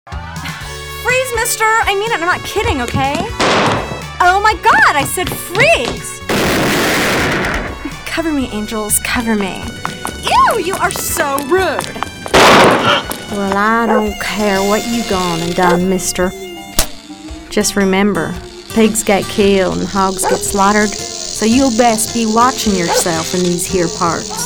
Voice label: animation voice over